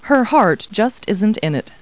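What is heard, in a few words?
woman; Talking Clip Words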